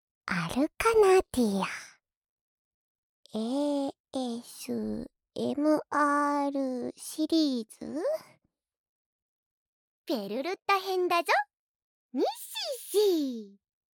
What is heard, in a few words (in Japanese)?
タイトルコール